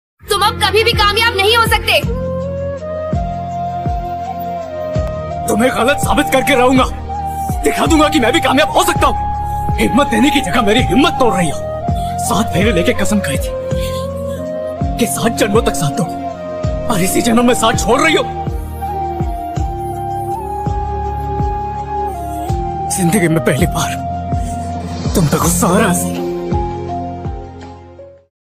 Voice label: hindi dubbed dialogue motivational; jersey movie; natural star nani

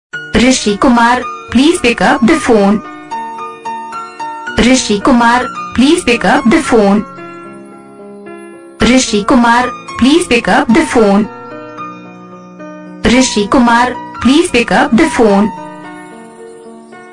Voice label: please pickup the phone name ringtone; rishi

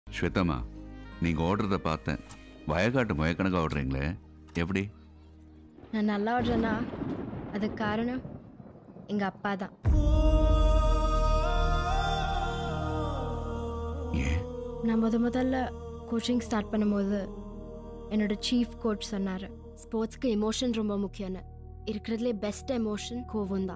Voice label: movie dialogue, Tamil; viswasam; ajith

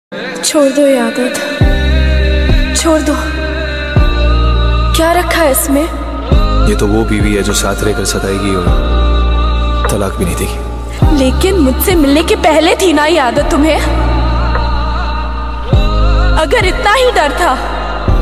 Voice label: dialogues heartbreaking love, song; aashiqui 2

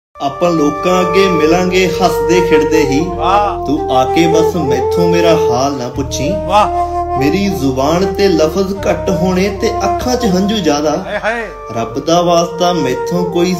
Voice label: punjabi sad shayari

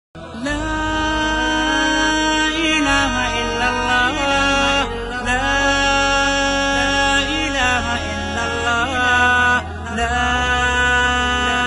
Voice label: kalma tayyaba islamic ringtone; kalma shari

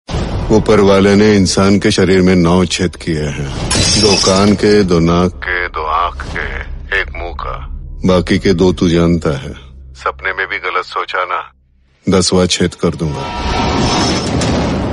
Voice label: dialogue ringtone

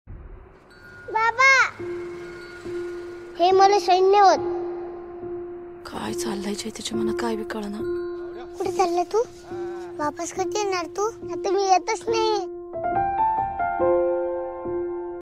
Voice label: dialogue ringtone; naal movie

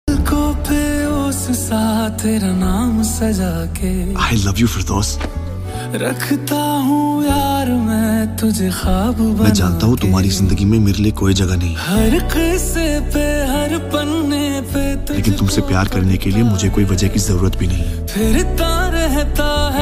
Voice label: romantic dialogue; notebook

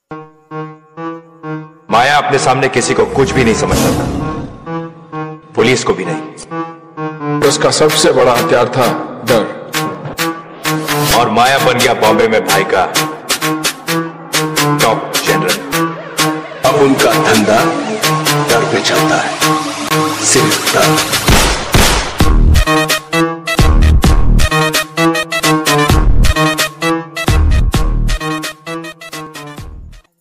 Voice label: dialogue ringtone

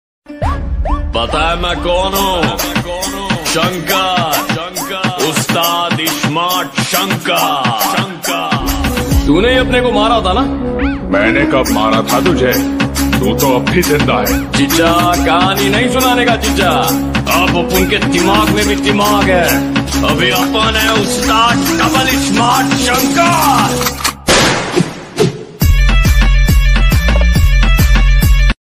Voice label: attitude dialogue south dialogue ringtone; ram pothaneni; double ishmart shankar

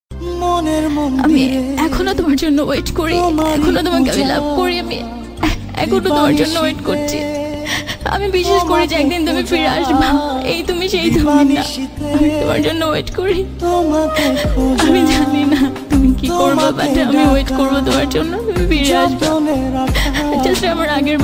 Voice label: dialogue bangla ringtone very sad ringtone

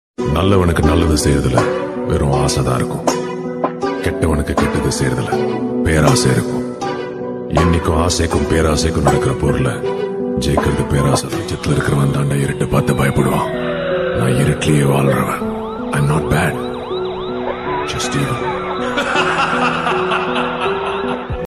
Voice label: dialogue, tamil; thani oruvan dialogue lyrics

